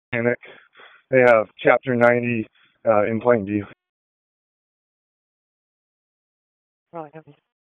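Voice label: Raleigh Police Northeast District Dispatch; wake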